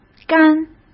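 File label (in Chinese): "杆", Mandarin Chinese; chinese flashcards; hsk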